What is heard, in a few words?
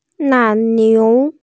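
"nai niu", Mandarin Chinese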